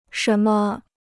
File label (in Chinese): "什么", Chinese